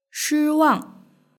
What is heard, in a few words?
"shiwang", Chinese; duiniutanqin